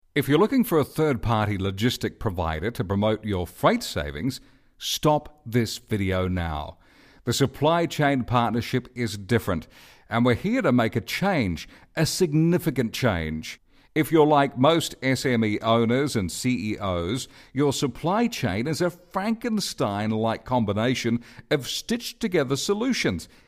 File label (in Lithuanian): (VYR) Australu balsas; vyras